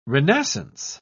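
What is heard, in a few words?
"renascence", English, British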